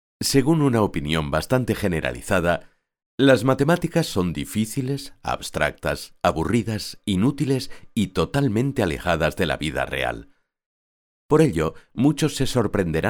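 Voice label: spanish European male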